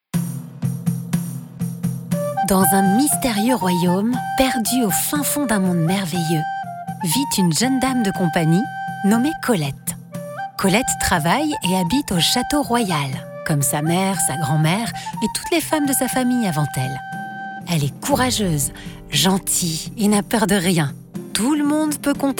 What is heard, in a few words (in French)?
Conte, voix; Flora et Colette